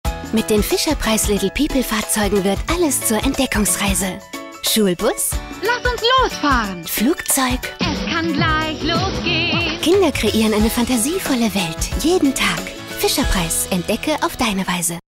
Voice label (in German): Niedlich